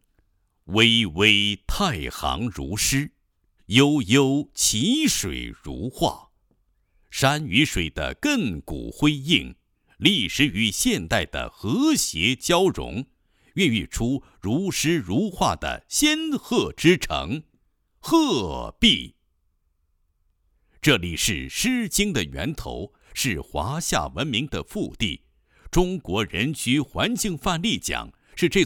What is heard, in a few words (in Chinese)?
男, 地区招商 招商宣传; 鹤壁市宝山循环经济产业集聚区